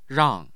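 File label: "rang4", Mandarin Chinese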